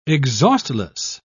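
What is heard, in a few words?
"exhaustless", British English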